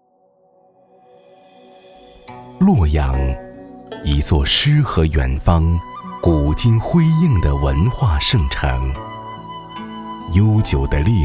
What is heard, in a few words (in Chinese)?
男国 纪录片 人文历史; 洛阳